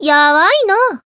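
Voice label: Voice finish, Japanese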